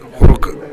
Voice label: "horogu", minamiyamagata